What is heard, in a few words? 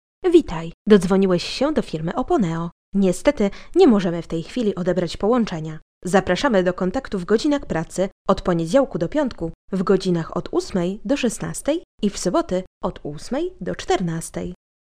Polish female